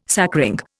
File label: "sacring", Indian